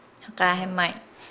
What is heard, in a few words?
"return", Nukuoro